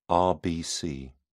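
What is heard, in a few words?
"rbc", British English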